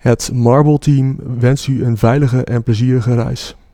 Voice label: Dutch; marble